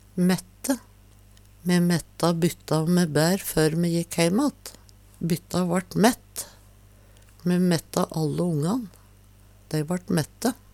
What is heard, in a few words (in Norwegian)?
maette, Numedalsmål